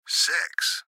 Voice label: Gps Voice, Male

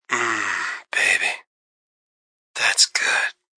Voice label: Mm Baby Good, Male; Sex; VoiceBox

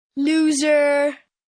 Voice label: "Loser", Attitude, 1 boy, 10 yrs; Attitude Sound Effects

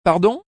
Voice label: Pardon French, Male; Talking Sound Effects; Sound Effects:Voice; VoiceBox

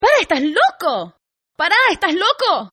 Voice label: Female Crazy Spanish, Hispanic Female Saying; Attitude Sound Effects

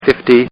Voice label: Man Says, Talking; Talking Sound Effects

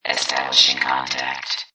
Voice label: Robot Voice "Establishing Contact"; Robot Type 1 voice

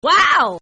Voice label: Guy Says WOW; Exclamations; Voice

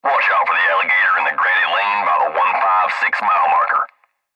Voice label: Cb Trucker Radio; Cb Radio Male Watch Out For The Alligator In Granny Lane By The 156 Mile Marker; Radio Voice; Blastwave

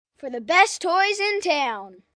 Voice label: Best Toys In Town, Sales Pitch, 1 boy, 10 yrs; Talking Sound Effects

